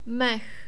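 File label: "mech", Czech, male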